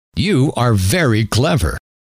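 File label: you Are Very Clever, male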